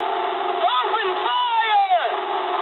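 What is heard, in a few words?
English attack position mood med; War Thunder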